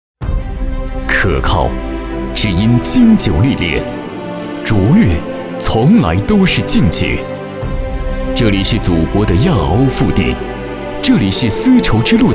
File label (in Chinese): 男, 年轻激情; 新疆